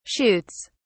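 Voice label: "shoots", British English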